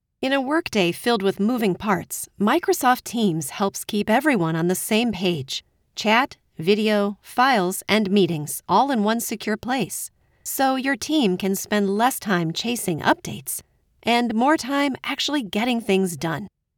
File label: Ad; Microsoft